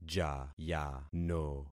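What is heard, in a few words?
"jayano", concatenated syllables; Affixing experiment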